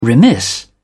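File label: "remiss", British English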